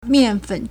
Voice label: "mian4fen3", Chinese